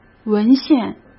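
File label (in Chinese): "文献", Mandarin Chinese; hsk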